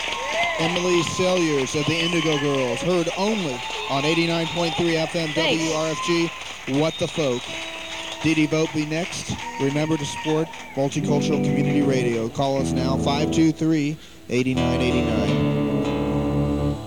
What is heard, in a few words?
radio announcer; songwriter showcase; eddie's attic; decatur, geor